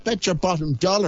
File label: beTSH our bottom dollar, Irish